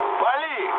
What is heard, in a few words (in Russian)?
attack position, mood low, speech ru; War Thunder